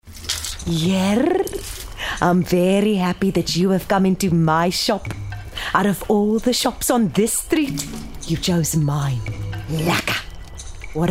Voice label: Character South African Alien

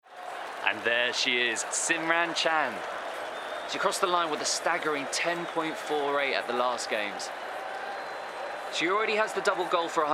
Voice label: Commentator

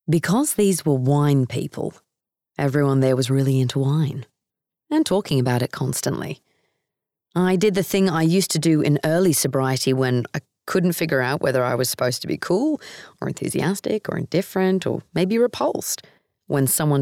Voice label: Bookread Australian; Quit Like A Woman by Holly Whitaker

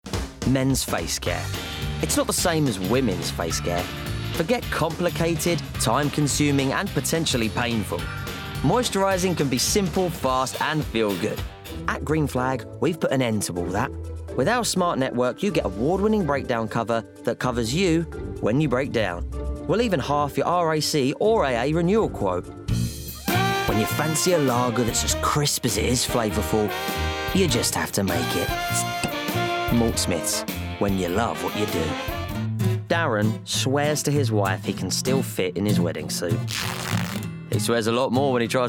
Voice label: Commercials Montage

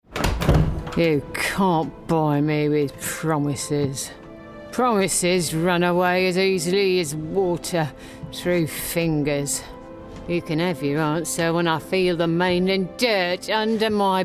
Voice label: Gaming Old Crone

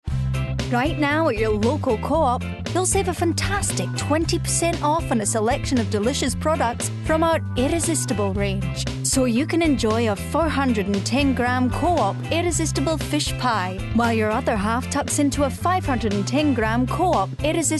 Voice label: Scottish; CoOp

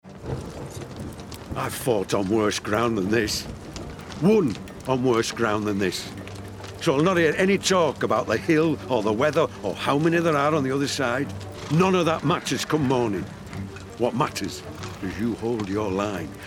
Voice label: Gaming Northern Warrior

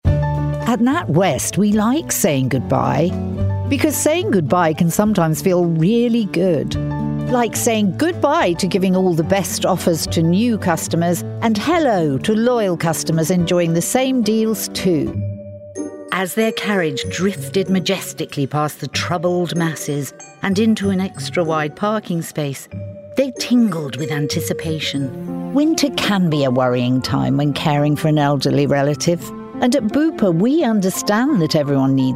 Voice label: Commercial

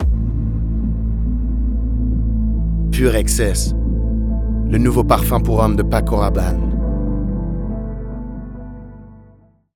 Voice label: French; PureExcess

